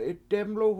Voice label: "7itdEmlu", Washo; Place Where You Eat